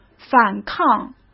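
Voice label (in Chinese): "反抗", Mandarin; hsk; chinese flashcards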